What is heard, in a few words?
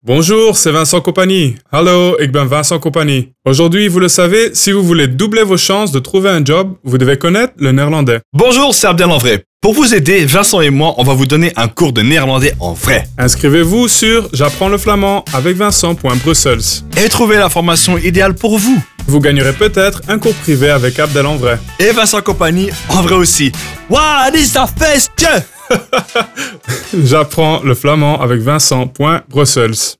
spot radio radiospot